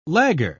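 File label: "lagger", British English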